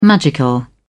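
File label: "magical", British English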